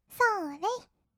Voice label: "そーれ", kawaii voice; 1200 kawaii voice!!; 色々なワンショット

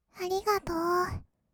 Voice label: 「ありがとう」, kawaii voice; 1200 kawaii voice!!; 色々なワンショット

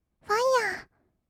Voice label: "ファイア", kawaii voice; 1200 kawaii voice!!; 色々なワンショット

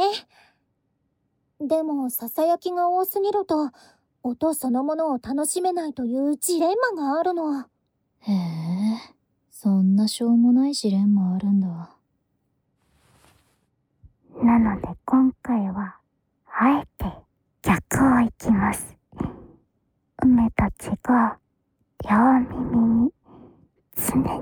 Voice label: ノンストップ囁き耳マッサージ, ASMR

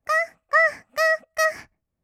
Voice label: gogo, kawaii voice, 合いの手; 1200 kawaii voice!!; ASMR

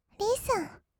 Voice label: "リッスン", kawaii voice; 音ゲー

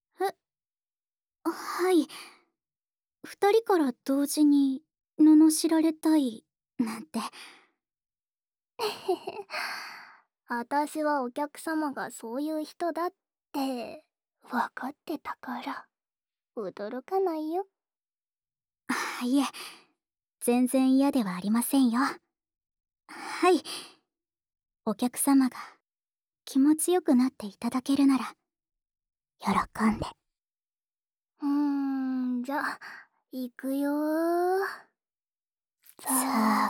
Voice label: 罵り手コキ 二人 逆, ASMR

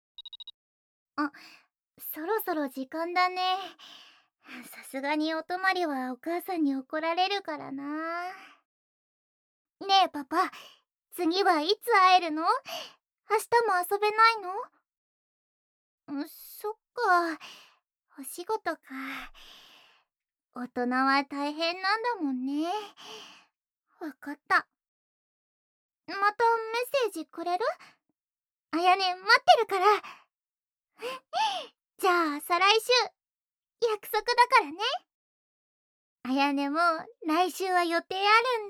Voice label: ピロートーク; ピロートーク、阿弥音のお母さんのことが聞きたいの？; ASMR

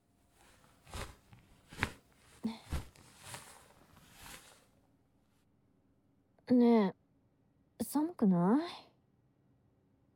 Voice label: 添い寝, ASMR

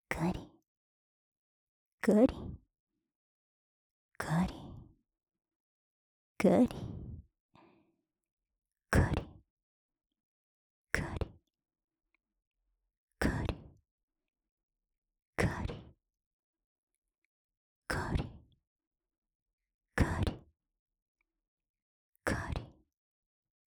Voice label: カリカリ ゆっくり, 低音 お姉さん, オノマトペ オンリー; 乳首オナ指示でカリカリタイム

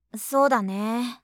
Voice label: "そうだね…", 相づち・反応系【ネガティブ】; ASMR

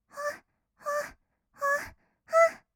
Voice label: 「ほっほっ」, kawaii voice, 合いの手; 1200 kawaii voice!!; ASMR

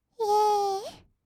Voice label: いえー, kawaii voice; 音ゲー

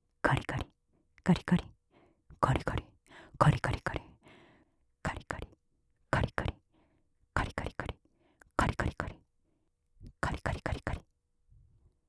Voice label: カリカリ ふつう, オノマトペ, 左耳; 上官

